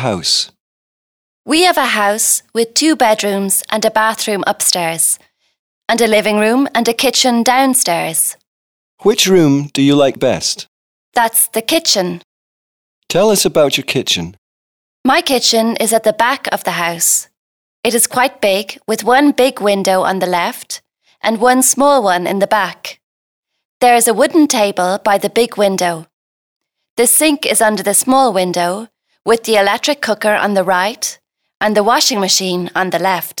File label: Dialogue Training; Aufgabe 2: Dialogue; Downloadmaterial Hauptschulprüfung Hessen